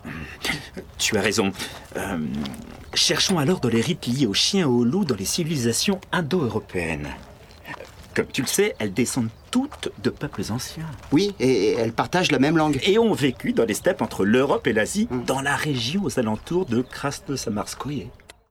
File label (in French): dialogue archeologue; Les loup garon; Vie Junior